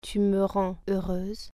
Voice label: you make me happy female, French

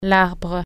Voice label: "tree", French

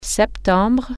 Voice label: "september", French

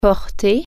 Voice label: "wear", French

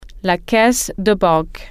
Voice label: "teller", French

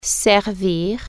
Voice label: "serve", French

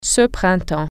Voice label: "this spring", French

